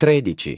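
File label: "thirteen", English; iOS 4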